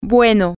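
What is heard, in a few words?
well, Spanish, female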